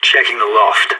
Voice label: checking loft, radio; SAS

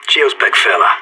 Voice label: "thanks", radio; SAS

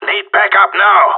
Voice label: need help, radio; GSG9

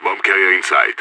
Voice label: bomber spotted, radio; IDF

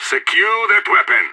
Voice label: friendly fire, radio; IDF

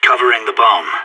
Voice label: guarding loose bomb, radio; SAS

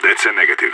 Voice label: negative, radio; SAS

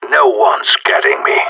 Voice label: last man standing, radio; GSG9

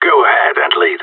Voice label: following, radio; GSG9

